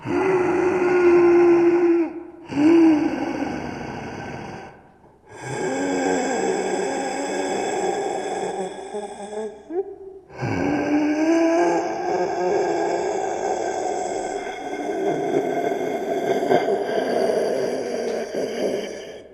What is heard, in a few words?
Male Ghost Hunting; Phasmophobia